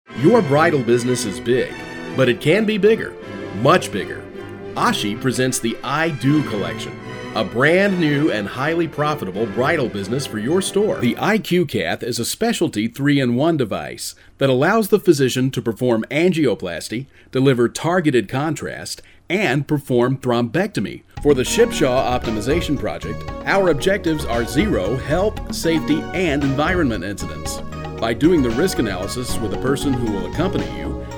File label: eLearning, Male